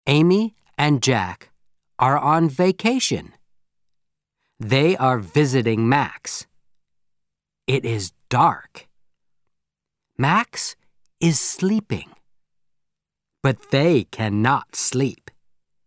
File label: Audio American English